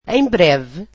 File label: portuguese soon